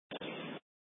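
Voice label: police department Dispatch, scanner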